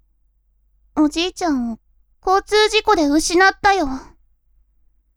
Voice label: women